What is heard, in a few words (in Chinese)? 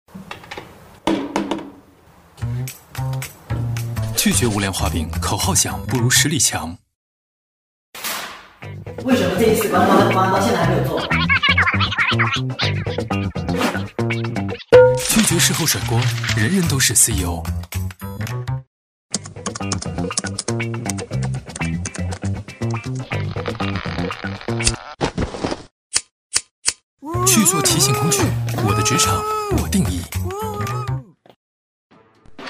男 TVC广告 个性时尚, 时尚质感 科技时尚; 跨境眼创意视频